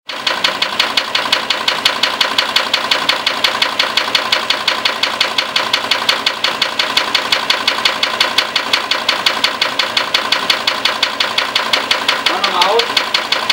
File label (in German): Sprachmemo